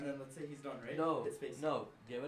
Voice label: recordin Mic Input; flstudio; Vocals